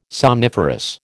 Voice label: "somniferous", American